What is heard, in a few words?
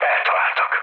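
Hungarian aircraft destroyed mood low, speech; War Thunder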